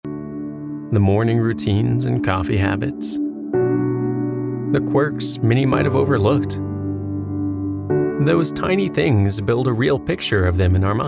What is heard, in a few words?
Narration, Male voices